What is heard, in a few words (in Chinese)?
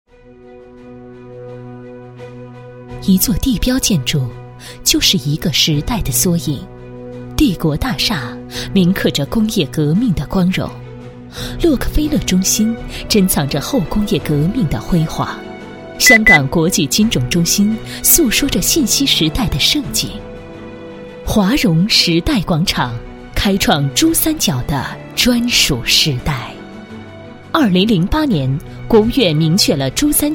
女4号 品质地产, 国语女声配音